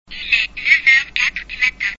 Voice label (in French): Montre Chronometre Parlante; Démonstration d'une montre-chronomètre parlante